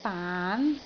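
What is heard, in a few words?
"baan2", Cantonese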